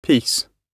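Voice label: "piece", British English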